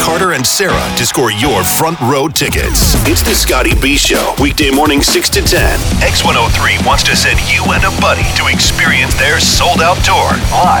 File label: radio men rock; radio imaging